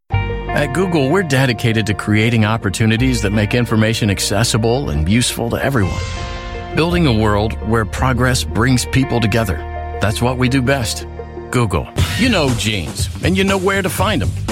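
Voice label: male, American English, commercial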